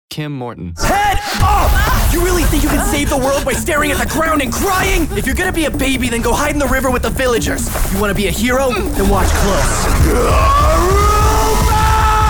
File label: Animation